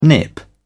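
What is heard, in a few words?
"nip", British English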